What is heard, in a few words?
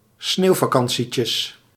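"sneeuwvakantietjes", Dutch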